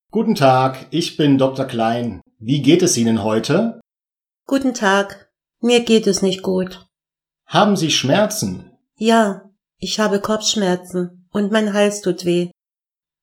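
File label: beim arzt, German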